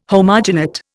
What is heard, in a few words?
"homogenate", Indian